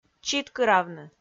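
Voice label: "читкыравны", Komi, female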